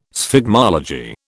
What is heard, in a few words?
"sphygmology", British